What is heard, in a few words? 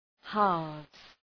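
"halves", English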